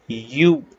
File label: short voyels arabic alphabet